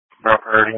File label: Police Patrol 1 (Dispatch)